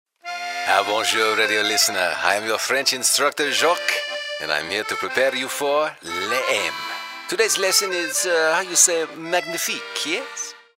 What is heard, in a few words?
french characters